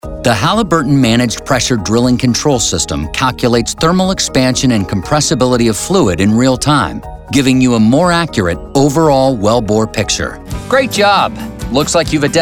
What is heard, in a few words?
eLearning